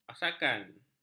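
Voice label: "masakan", Malay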